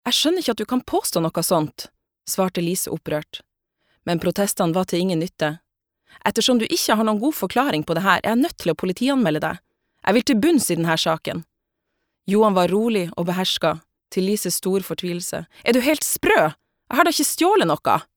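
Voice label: Norwegian audio book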